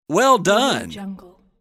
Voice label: male voice well done